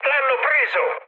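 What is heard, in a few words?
Italian aircraft destroyed mood high, speech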